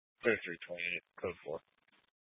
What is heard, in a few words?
Police Department Dispatch, scanner